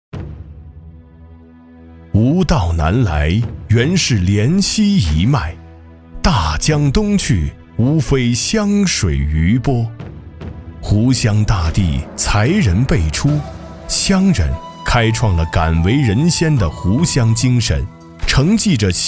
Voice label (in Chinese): 男 广告 厚重古风历史感; 酒鬼酒